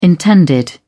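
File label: "intended", British English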